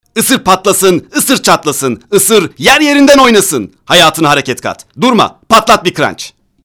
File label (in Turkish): REKLAM